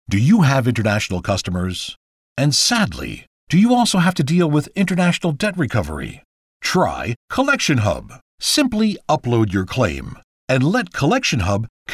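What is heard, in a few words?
male English documentary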